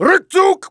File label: fear; dialogue; mohaa